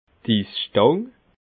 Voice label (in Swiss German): "isstang", alsace